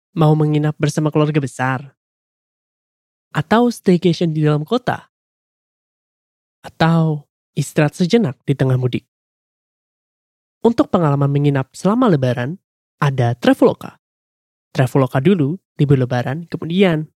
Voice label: CASUAL INDO

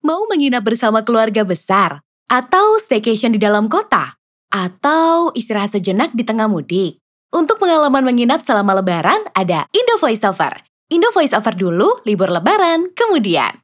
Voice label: CASUAL ID

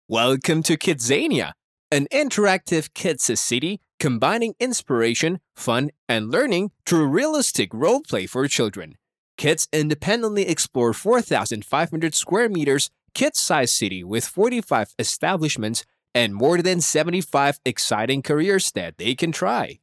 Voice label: English Casual

